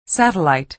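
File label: "satellite", American English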